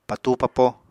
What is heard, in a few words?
"patúu-papo", Apatani